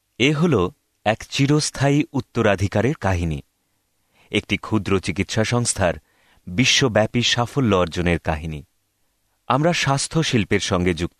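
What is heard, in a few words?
Bengali Male Healthcare Story; voice over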